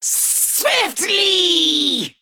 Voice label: Female Sethrak Attack

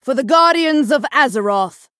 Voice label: Female Night Elf Knockout; Bacon Shop

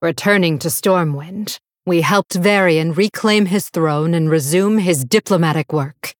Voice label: Female Blood Elf; Valeera

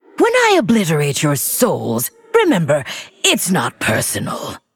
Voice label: Female Undead Boss Idle; Lich Tamsin

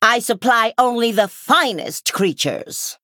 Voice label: Female Human Play

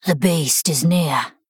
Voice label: Female Human Play

